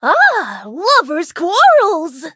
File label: battle en